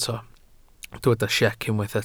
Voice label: "siec", Welsh